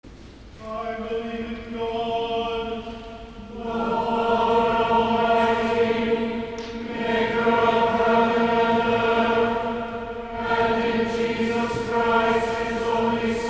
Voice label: Creed, monotoned; St. John's Cathedral, Jacksonville Florida